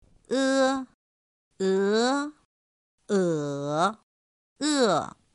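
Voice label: "ē é ě è", Chinese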